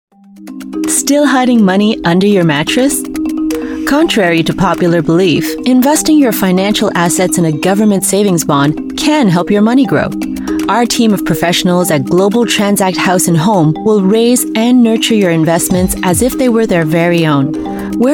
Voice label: US English; Global Transact